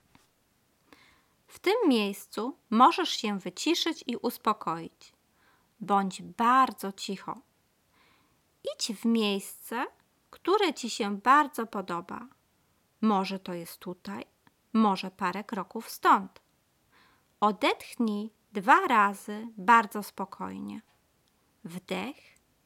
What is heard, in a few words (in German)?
Blumenpracht polnisch Spielidee; nienburg; blumenpracht im stadtteilpark